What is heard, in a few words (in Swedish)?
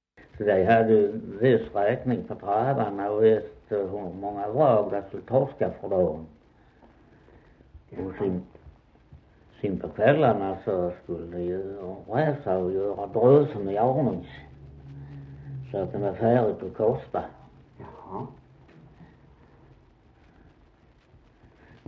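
Västra Torup Skåne, dialekter; Folkminnen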